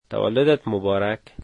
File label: dari Happy birthday